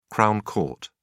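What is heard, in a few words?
"crown court", British English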